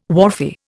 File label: "wharfie", Indian